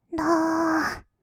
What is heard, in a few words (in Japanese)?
だーっ, kawaii voice; 音ゲー